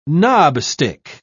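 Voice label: "knobstick", English, British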